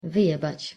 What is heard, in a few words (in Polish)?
wymowa po polski "wyjebac"